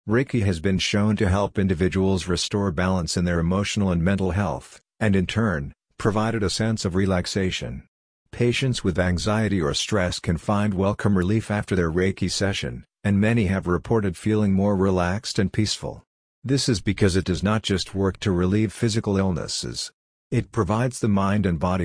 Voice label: Reiki Male Audio